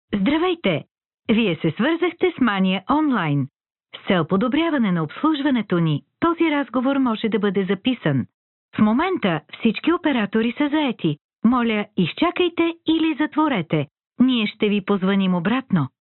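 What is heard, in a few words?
Bulgarian, female, IVR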